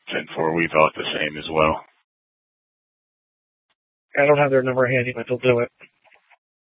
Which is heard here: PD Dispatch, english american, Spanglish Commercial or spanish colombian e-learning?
PD Dispatch